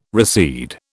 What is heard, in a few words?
"recede", British